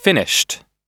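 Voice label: "finished", US English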